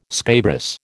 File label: "scabrous", American